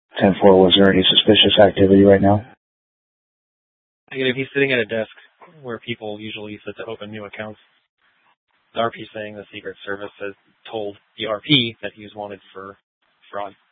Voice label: Dispatch; Scottsdale